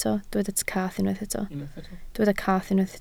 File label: "cath", Welsh